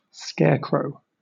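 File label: "scarecrow", British English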